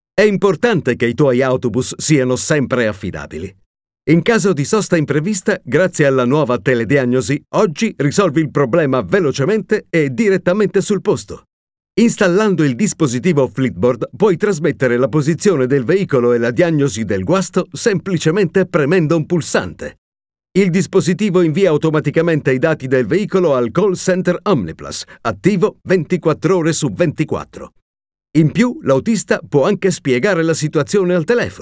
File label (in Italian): Aziendale